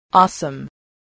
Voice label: "awesome", english